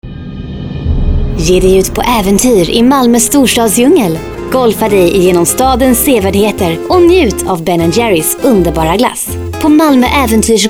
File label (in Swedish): Reklam